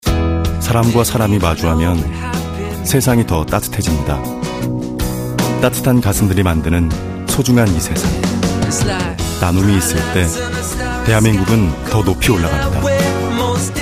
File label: Heartful